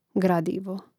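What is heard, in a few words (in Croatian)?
"gradivo", hrvatski; mreznik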